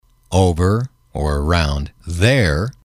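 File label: "over around there", English, male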